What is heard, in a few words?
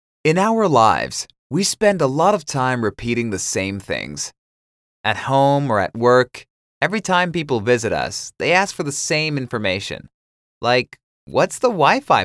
vo raw; dictor